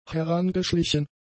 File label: "herangeschlichen", German; adjectives